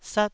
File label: "sat1", taiwanese syllable